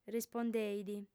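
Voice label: "rispondeit", female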